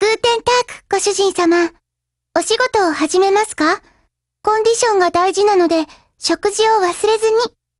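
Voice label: HELLO, Japanese; GF Alarm; G36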